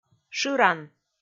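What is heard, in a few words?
"шыран", Komi, female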